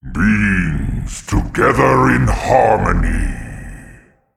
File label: Male Titan Trigger